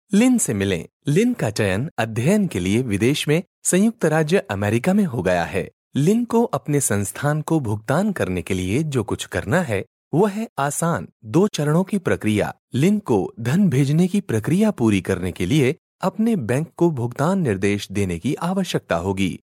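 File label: Hindi, male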